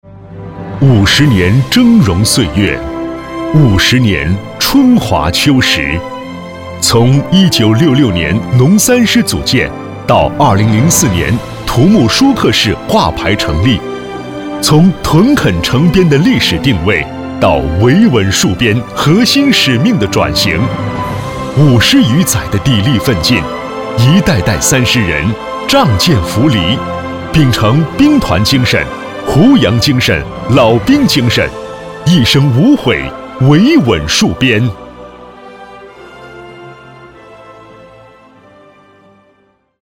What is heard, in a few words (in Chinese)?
男,政府专题